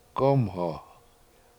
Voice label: "k7Omho", washo; Cow Parsnip